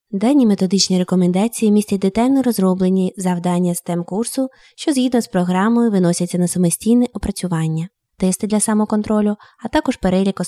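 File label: Ukrainian female voice over, narrative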